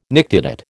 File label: "nictitate", American